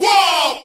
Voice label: "woah", group of men; ooh ahh